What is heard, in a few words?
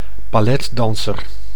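"balletdanser", Dutch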